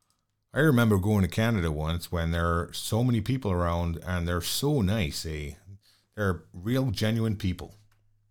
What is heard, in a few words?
Canadian